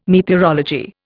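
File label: "meteorology", English, Indian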